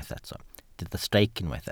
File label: "streic", welsh